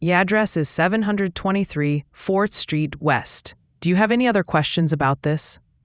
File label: question english